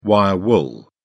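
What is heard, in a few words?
"wire wool", British English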